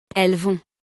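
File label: "elles vont", French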